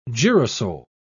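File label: "girasol", English, British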